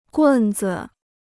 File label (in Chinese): "棍子", Chinese